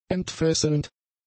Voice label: "entfesselnd", German; adjectives

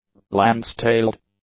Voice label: "Lance-tailed", English

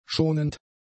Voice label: "schonend", German; adjectives

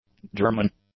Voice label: "German", english; adjectives

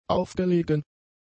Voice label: "aufgelegen", German; adjectives

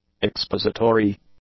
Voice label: "expository", english; adjectives

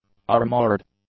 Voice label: "armoured", English; adjectives

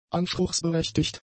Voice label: "anspruchsberechtigt", German; adjectives

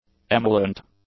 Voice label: "emollient", English

